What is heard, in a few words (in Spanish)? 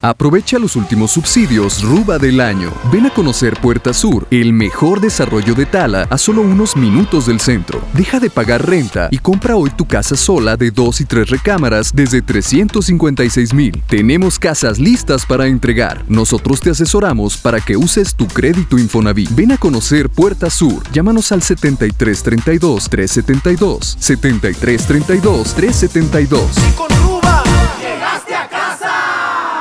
SPOT RADIO; RUBA; CAÑAVERAL